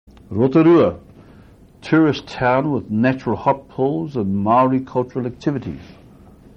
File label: "rotorua", maori